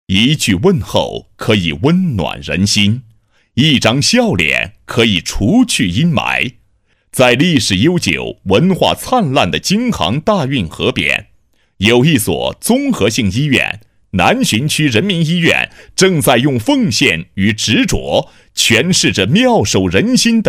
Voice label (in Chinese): 专题录音,男; 南浔区人民医院; 干音; 新闻广告专题颁奖